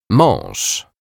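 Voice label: "maz", Polish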